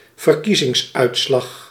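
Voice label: "verkiezingsuitslag", Dutch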